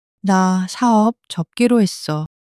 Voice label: sadness, monologue

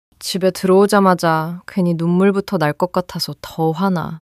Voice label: sadness

